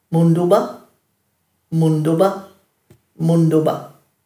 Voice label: "munduba", Dhurga

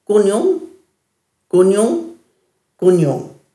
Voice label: "gunjung", Dhurga

